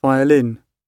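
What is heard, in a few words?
"violin", British English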